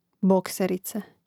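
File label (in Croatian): "bokserice", Hrvatski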